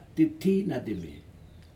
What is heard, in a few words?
one man, Aneityum